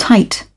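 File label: "tight", British English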